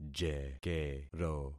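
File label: "jekero", concatenated syllables; Affixing experiment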